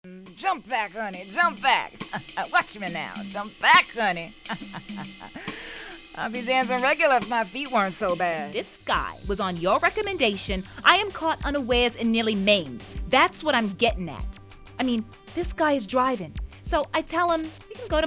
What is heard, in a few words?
character vo